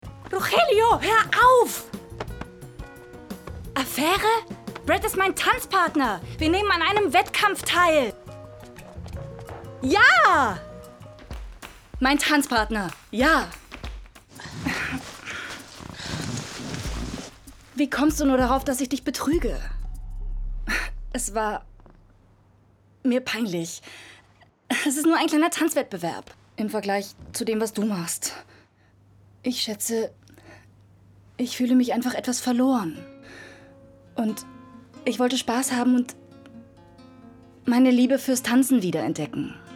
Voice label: amerikan Serie Sync

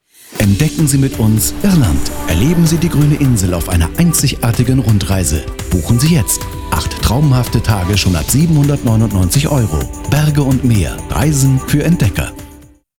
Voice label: berge und meer tvc

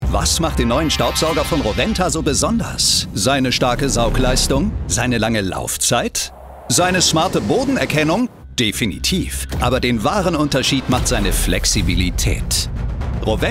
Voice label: Web Spot Staubsauger

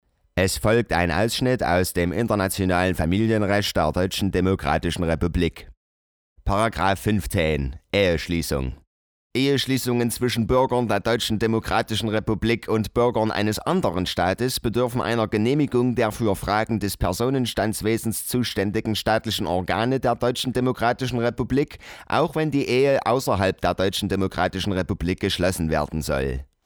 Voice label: dialekt saechsisch; LOFT VOICES

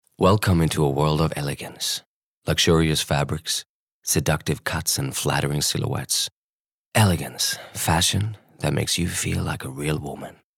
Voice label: us englisch; LOFT VOICES

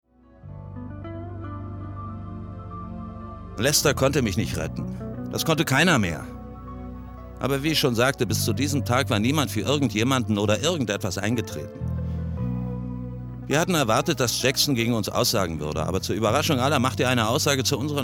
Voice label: synchron

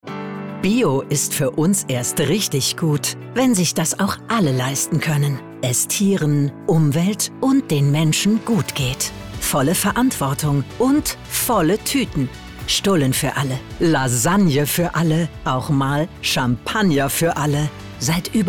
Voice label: Werbung Supermarkt, Commercial (Werbung), German; voice

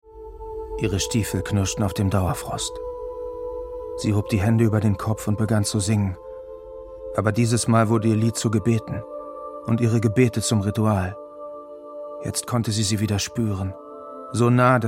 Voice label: Literatur, Audiobook (Hörbuch), German; Road of Bones; LOFT VOICES

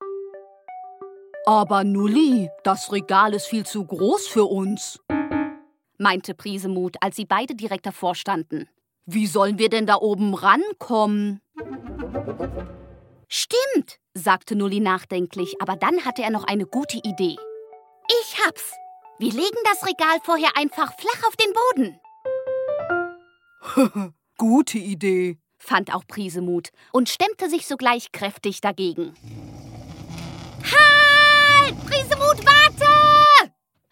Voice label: Kinderhoerbuch

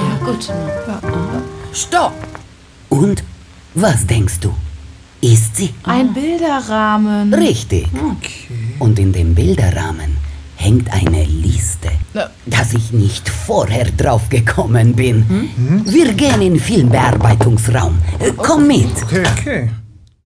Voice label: Rolle russische Kinobesitzerin; LOFT VOICES

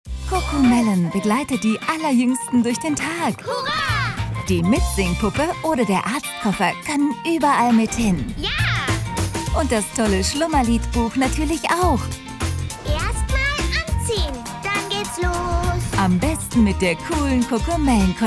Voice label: Werbung TV; Cocomelon

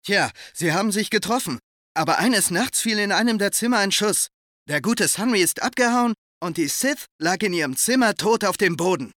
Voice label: synchron

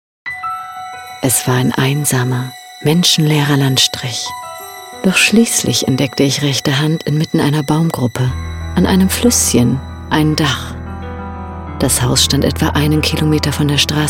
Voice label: Hoerspiel; LOFT VOICES

